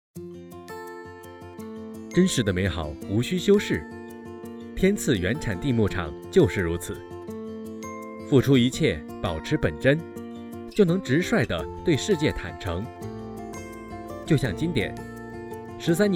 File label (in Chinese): 经典有机奶广告